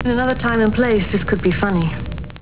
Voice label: funny